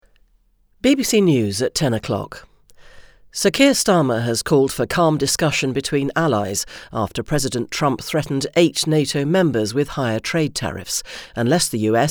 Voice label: Newsreader

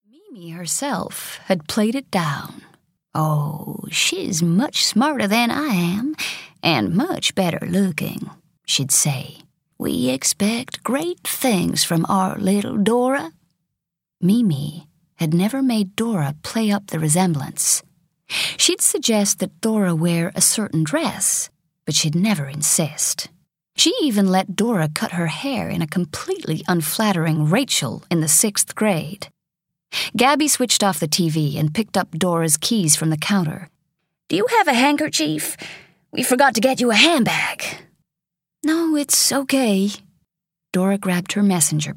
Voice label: Southern

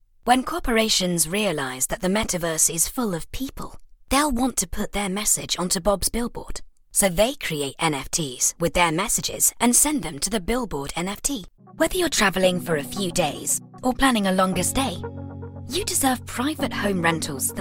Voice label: Corporate

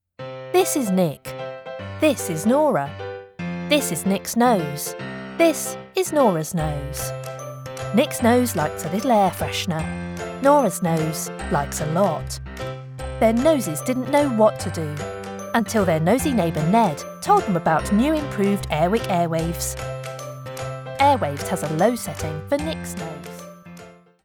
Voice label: COMEDIC; Airwicks

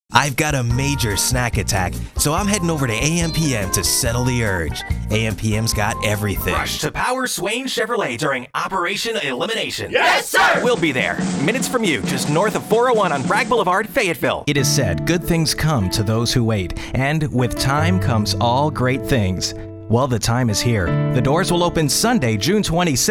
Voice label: Commercials

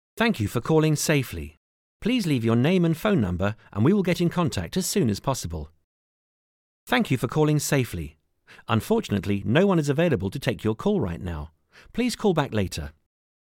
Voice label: Phone Messages; Safely

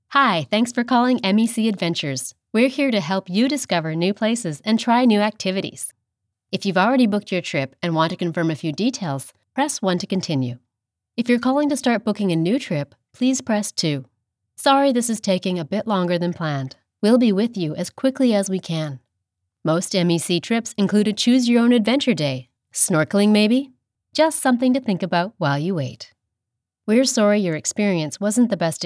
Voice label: Phone IVR

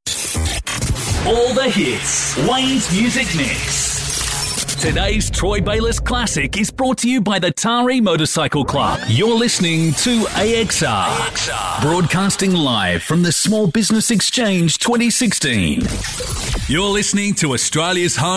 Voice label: DJ Radio

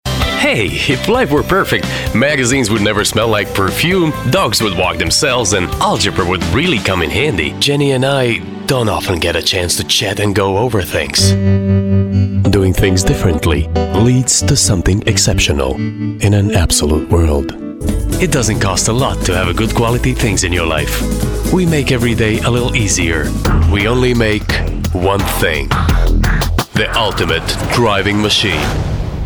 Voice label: Commercial ENG

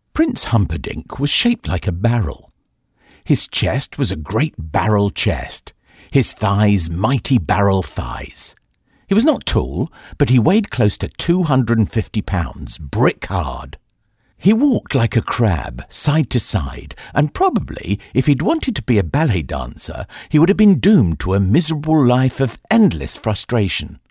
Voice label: Raw Studio file 44khz 16 bit Mono

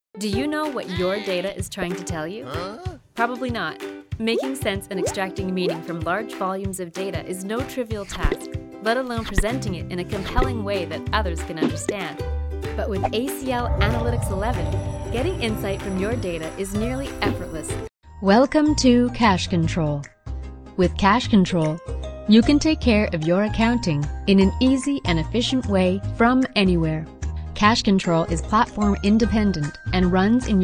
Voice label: Corporate demo

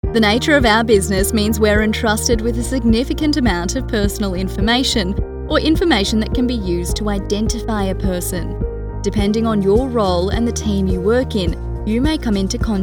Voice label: E-learning Privacy

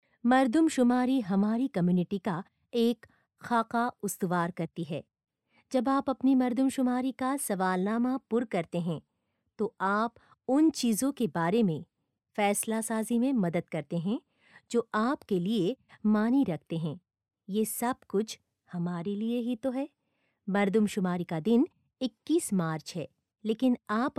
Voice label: Urdu Raw Instructional Vo; Census 2021

